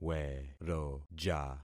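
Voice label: "weroja", concatenated syllables; Affixing experiment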